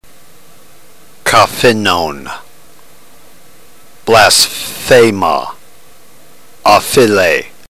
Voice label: drill, ntgreek